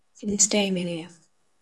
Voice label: VoiceActing es

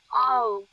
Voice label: Voice Acting, es, F0

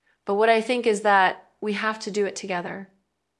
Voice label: Voice Acting es

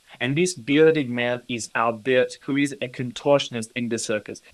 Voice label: Voice Acting, es, M

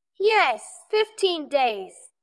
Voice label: VoiceActing es

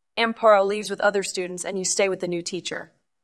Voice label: VoiceActing es F

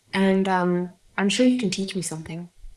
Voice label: Voice Acting, es, F0

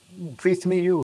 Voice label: Voice Acting, es, M

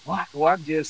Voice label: Voice Acting, es

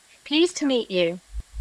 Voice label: Voice Acting, es, F0

